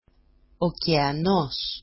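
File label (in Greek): "okeanos", Greek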